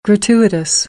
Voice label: "gratuitous", American; t - ʧ